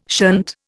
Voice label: "shunt", Indian